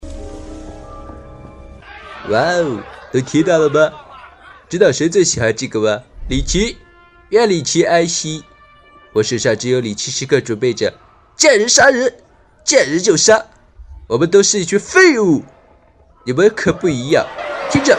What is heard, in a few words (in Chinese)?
变音兔子